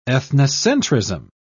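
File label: "ethnocentrism", British English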